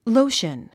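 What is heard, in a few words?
"lotion", English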